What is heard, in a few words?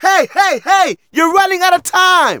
Narration